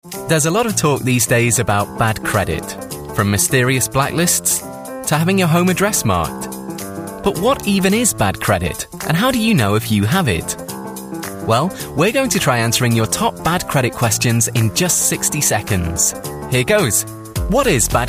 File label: Upbeat Chatty; Chatty, Conversational